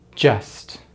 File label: "just", American English; Consonants in American English; easypronunciation